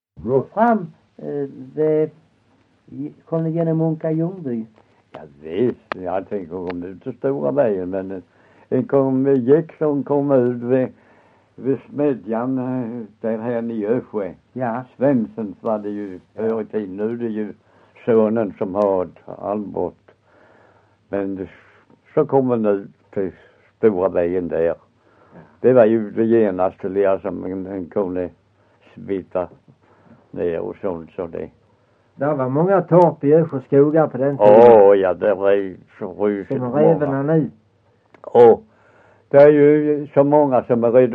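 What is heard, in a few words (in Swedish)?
Össjö Skåne, dialekter; Folkminnen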